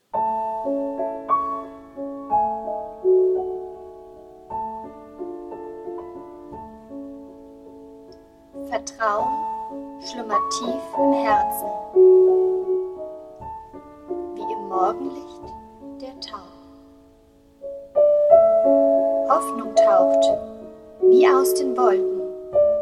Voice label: radio